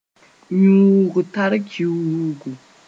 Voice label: "yũug-tar-kiuugu", moore